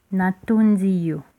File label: thanks, lingala